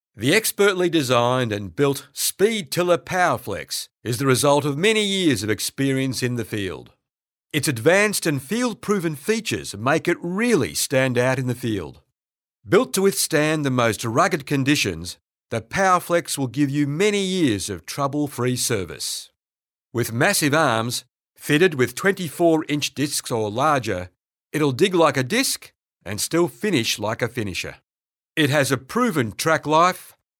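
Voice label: Australian English, male, voice over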